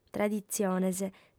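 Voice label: "traditziones", female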